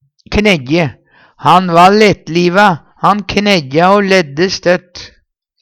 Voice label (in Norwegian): knejje, Numedalsmål; Verb; Han va lettliva, han kjejja o ledde støtt.